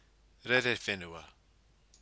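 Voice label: "rerewhenua", Maori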